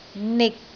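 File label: "nik6", Cantonese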